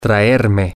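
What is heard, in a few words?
to Bring Me, spanish, male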